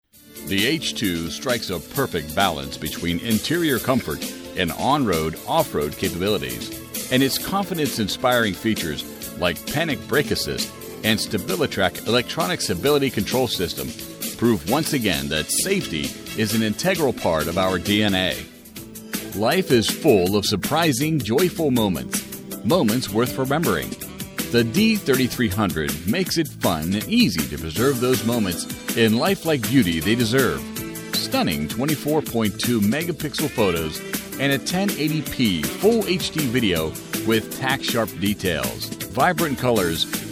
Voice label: corporate narration

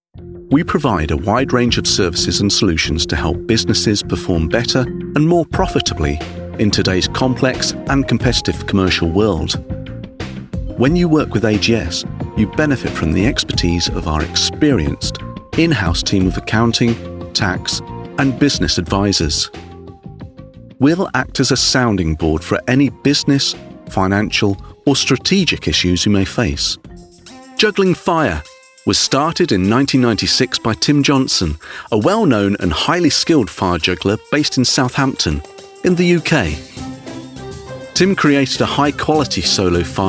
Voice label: Corporate VOICE

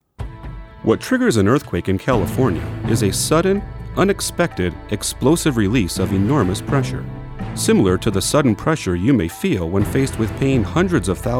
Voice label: Narration

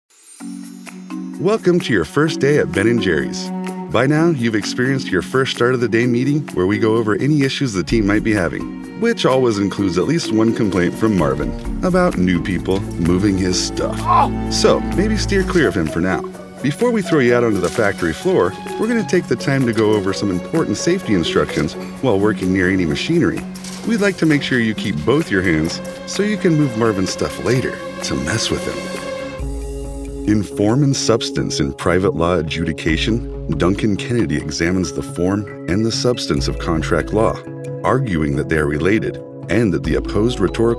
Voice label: E LEARNING

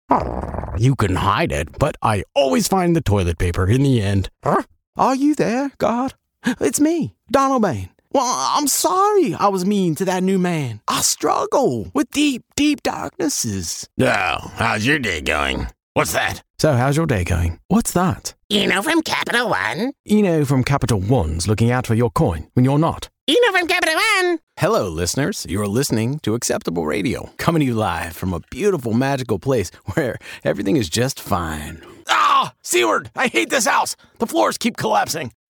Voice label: Animation Character

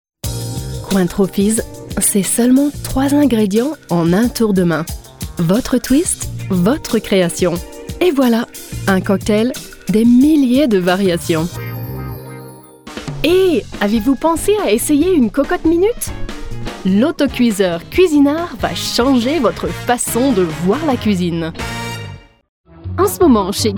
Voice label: French Commercial

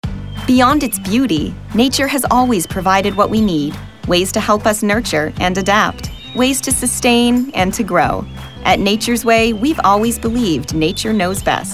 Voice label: vo narration

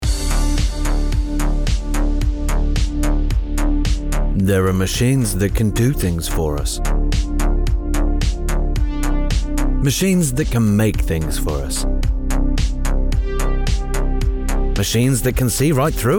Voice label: Jaguar Ad

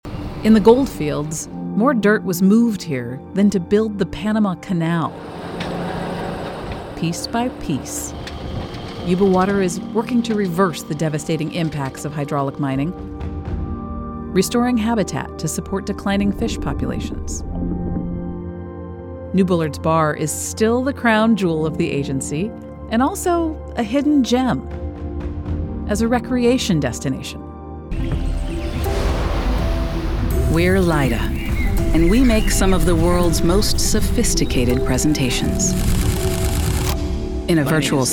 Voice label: NARRATIVE

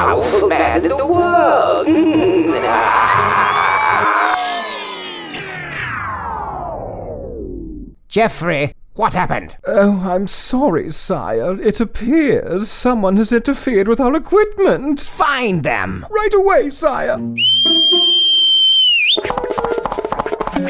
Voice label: animation reel

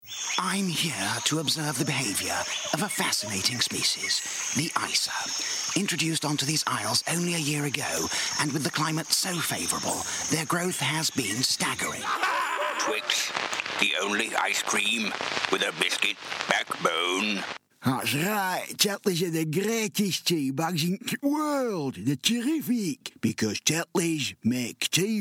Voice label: character animation

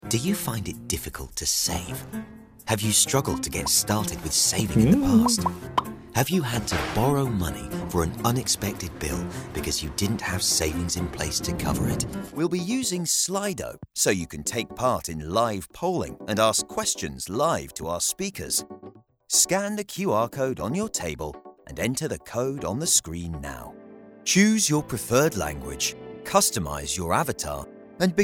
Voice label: Corporate and E-Learning

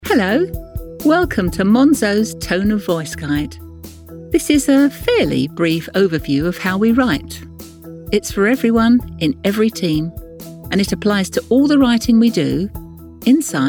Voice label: Corporate Reel

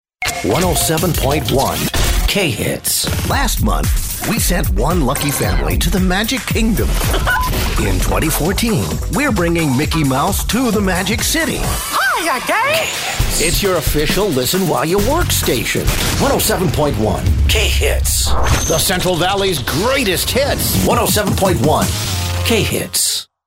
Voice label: Radio Imaging IDs

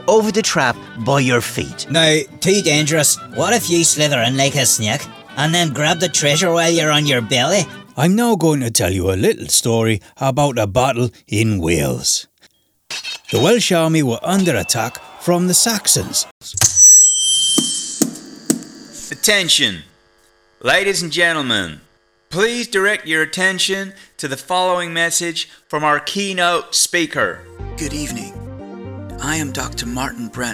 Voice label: Character

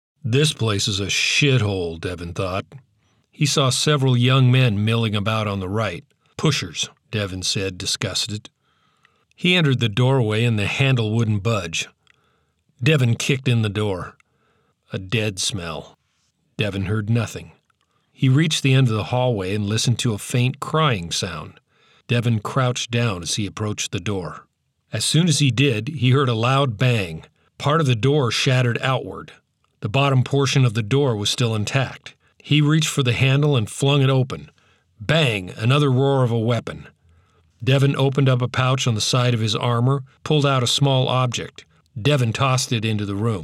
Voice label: audiobook

